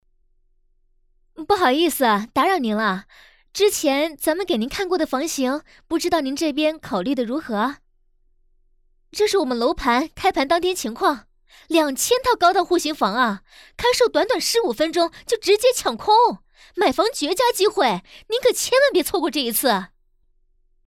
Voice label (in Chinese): 女 角色 房产中介 热情推销